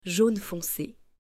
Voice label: "Jaune fonce", French

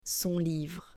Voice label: "SON LIVRE", French; possessive adjectives

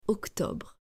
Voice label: "octobre" French

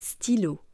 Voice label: "stylo" French

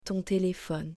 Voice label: "ton telephone", French; possessive adjectives

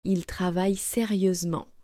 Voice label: "Il travaille sérieusement", French

